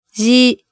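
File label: "ji", Japanese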